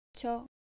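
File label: "cho", Japanese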